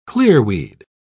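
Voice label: "clearweed", English, uk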